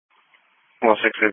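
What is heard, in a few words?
Police Patrol Dispatch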